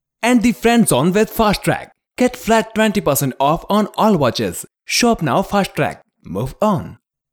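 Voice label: English indian accent, male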